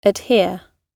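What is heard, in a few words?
"adhere", British English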